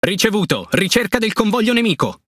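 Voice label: Italian message move ridge; PVE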